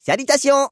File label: gnome, male; PhoenixCraft 1.16.5; PhoenixNPCPack; minecraft; salutations